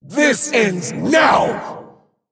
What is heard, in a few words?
Female Dragon Attack